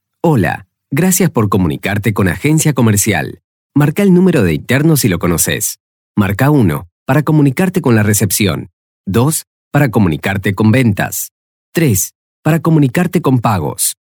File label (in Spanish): telefonía